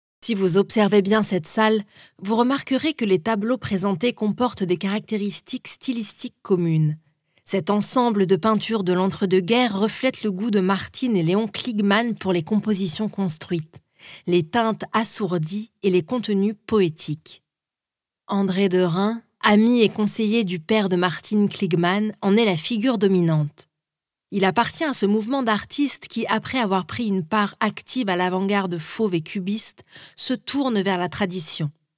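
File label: French female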